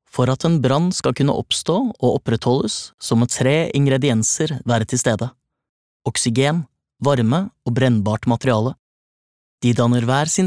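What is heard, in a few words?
no elearning, Norwegian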